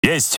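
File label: Russian Speech Quick Commands Aye Aye; World Of Warships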